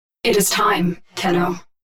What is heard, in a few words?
Alarm Alert It Is Time; Tenno